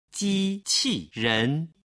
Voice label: "jiqiren", Chinese

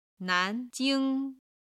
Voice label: "nan2jing1", Chinese

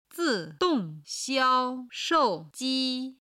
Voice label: "zi4dong4xiao1shou4ji1", chinese

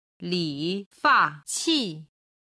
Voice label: "li fa qi", Chinese

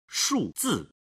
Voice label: "shuzi", Chinese

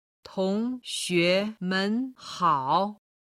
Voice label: "tongxuemenhao", Chinese

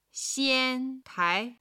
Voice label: "xian1tai2", chinese; chinese travel

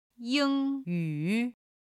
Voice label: "ying1yu3", chinese

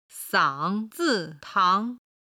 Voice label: "sangzitang", Chinese

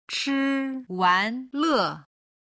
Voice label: "chiwanle", Chinese

